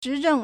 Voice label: "zhi2zheng4", Chinese

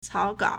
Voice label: "cao3gao3", Chinese

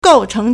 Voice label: "gou4cheng2", Chinese

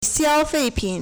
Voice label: "xiao1fei4pin3", Chinese

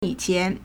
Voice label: "yi3 qian2", Chinese

